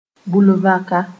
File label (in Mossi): "bulvãka", moore